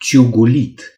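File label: "peck", Romanian; gcompris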